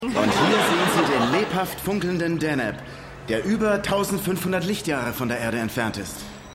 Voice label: Planetariumssprecher; Keine Gnade Fuer Dad

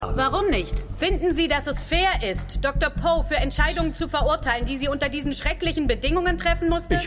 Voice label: Reporterin; Stimmproben; MEMORIAL HOSPITAL - DIE TAGE NACH HURRIKAN KATRINA (DF 2022); Five Days at Memorial

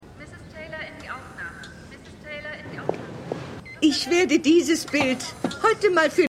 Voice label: Krankenhausdurchsage; Hello Tomorrow!

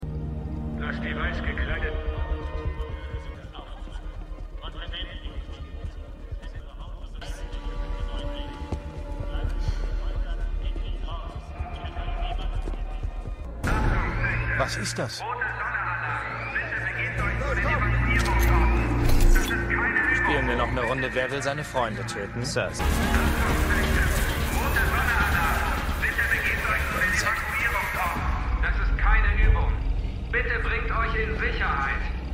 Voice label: Durchsagen, Stimmproben; The 100

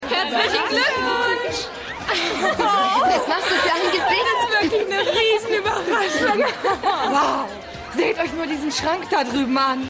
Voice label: 2 Freundinnen

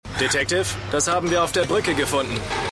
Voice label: Polizist, Stimmproben

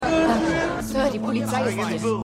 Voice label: Haushaelterin, Stimmproben

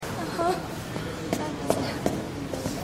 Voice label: Jugendliche; Stimmproben; City On A Hill

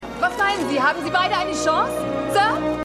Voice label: Reporterin; Manhattan Love Story

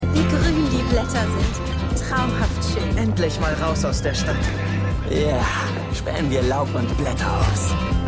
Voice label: Frau Mann Mann; Stimmproben

